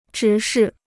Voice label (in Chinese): "直视", Chinese